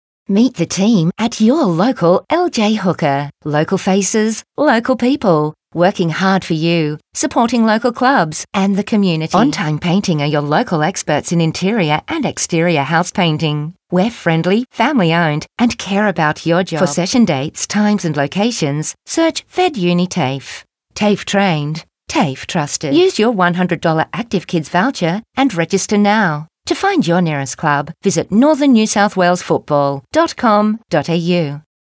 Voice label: Soft Sell